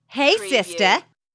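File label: Woman Says Hey Sista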